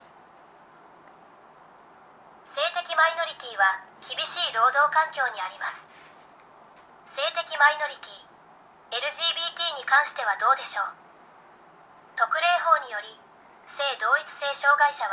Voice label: elearning; japan; business and human rights